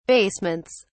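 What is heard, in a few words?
"basements", British English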